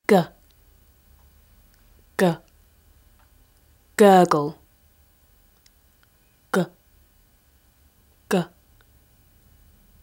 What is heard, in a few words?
Phonics Spoken Guide G O U